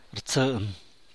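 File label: "ruld geeld", San Mateo Macuilxochitl Zapotec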